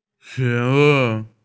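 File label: "food", Mandarin; shi2wu4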